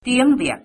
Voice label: vietnamese

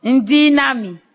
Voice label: good morning, ewe

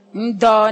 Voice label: good afternoon, ewe

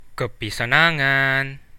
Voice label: hello, Central Dusun; Kopisanangan

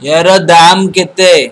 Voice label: how much odia

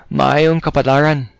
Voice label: good luck, cebuano

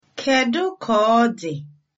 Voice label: how are you, igbo

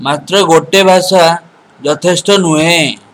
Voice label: one language odia

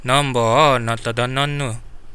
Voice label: where from, kadazan

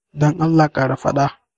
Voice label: say again, hausa

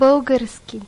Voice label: bulgarian

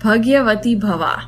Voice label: good luck, gujarati

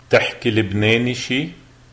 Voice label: do you speak, lebanese, arabic; Bte7ki Lebneni chi?

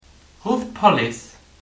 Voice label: police, luxembourgish

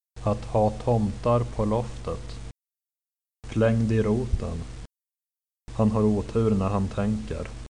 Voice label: crazy, swedish

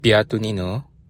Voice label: how are you, bonggi

